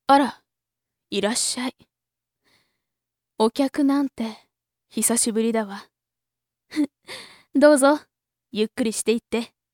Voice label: woman